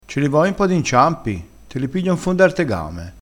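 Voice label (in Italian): "inciampi", crespinese dialettale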